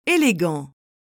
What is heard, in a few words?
"elegant", French